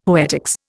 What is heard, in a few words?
"poetics", Indian; Dictionary